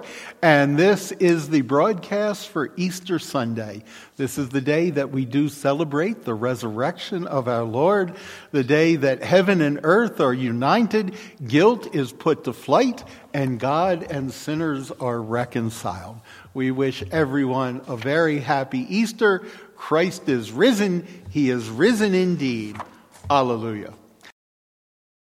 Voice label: Welcome and Announcements; Easter Sunday